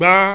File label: "GBA", Yoruba; TONES